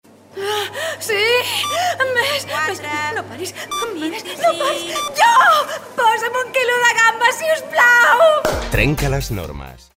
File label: female catalan drink comercial; ideasonora